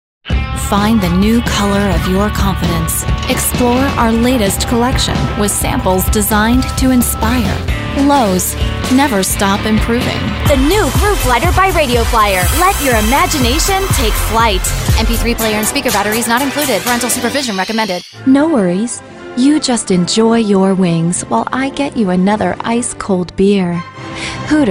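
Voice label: American English commercial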